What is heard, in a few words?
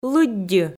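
"лыддьы", Komi, female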